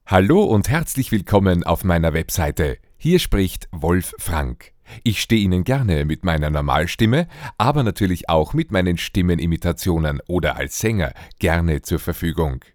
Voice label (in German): normalstimme